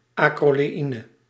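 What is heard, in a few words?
"acroleïne", Dutch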